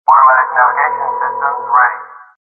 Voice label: automatic navigation; Dystopia; silo